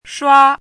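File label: "shua1" Mandarin Chinese, high level tone; chinese-voice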